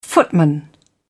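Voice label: "footman", British English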